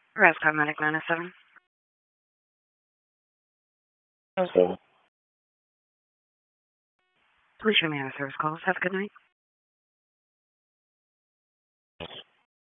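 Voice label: EMS Dispatch, p25; Wake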